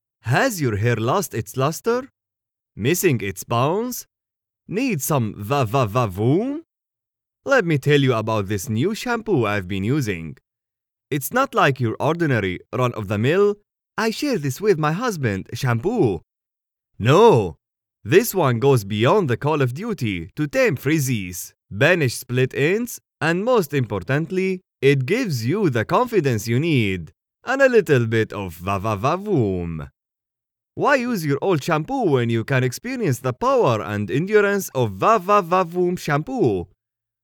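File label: Arabic English male Commercial; english in foreign accent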